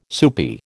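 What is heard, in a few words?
"soupy", American English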